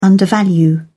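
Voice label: "undervalue", British English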